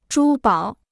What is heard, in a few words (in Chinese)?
"珠宝", Chinese